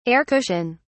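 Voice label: "air cushion", British English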